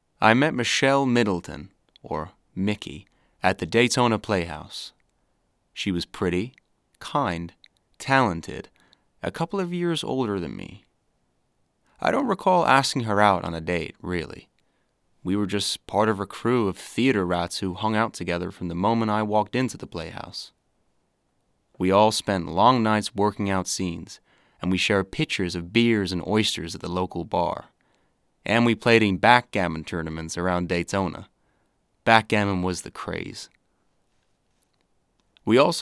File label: male, English Australian USA accent, narration